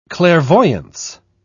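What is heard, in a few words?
"clairvoyance", British English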